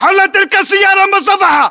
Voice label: landmark near armored vehicle, english battlechatter